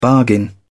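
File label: "bargain", British English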